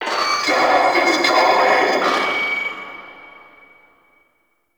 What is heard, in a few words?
Vocal Quest Loudspeaker, Town Escape; Warframe; Duviri; Ambience